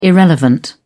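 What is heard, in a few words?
"irrelevant", British English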